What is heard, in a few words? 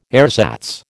"ersatz", American